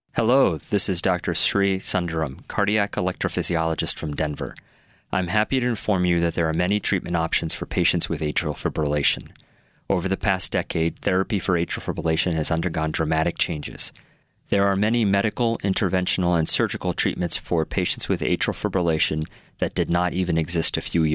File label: A-fib public service anouncement